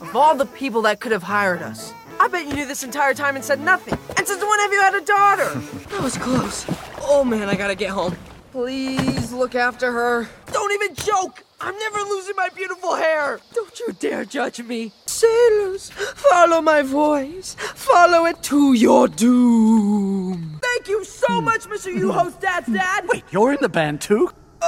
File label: Animation, voiceover